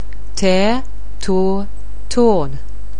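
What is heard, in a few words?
"tear", English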